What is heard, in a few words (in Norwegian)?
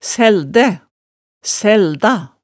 "sældæ", hemsedalsmaalet